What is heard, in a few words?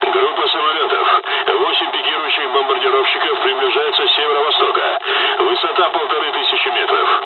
dialog, dialogs russian; War Thunder